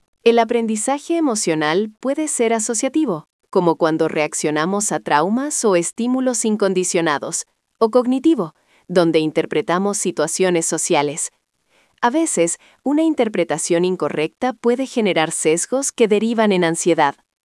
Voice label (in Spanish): locucion